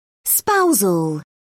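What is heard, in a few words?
"spousal", British English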